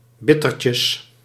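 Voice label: "bittertjes", Dutch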